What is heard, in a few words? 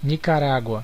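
"nicaragua", Brazilian Portuguese; gcompris; geography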